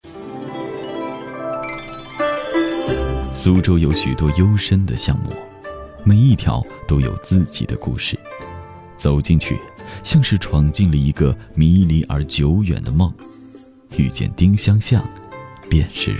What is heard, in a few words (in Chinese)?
男声 专题片—文化专题片—舒缓回味 大气浑厚磁性 沉稳, 国语